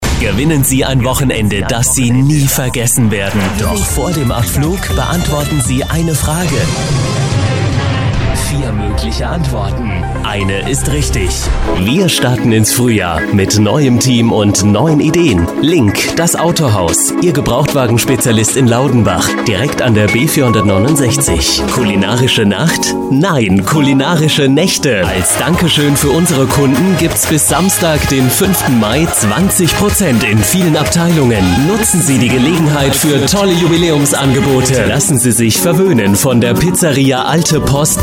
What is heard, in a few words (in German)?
Werbesprecher